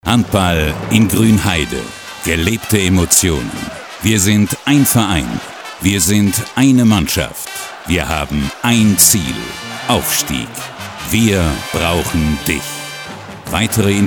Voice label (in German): mit Hintergrund; Handball Gruenheide